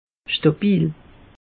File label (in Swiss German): "stabil", alsace; meteo